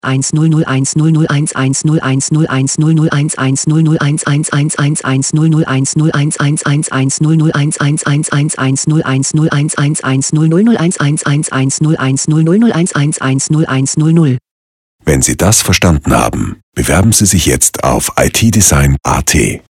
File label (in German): Hörfunkspot